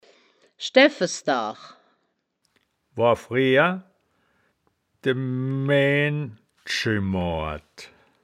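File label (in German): "schdaeffes daach", wittlicher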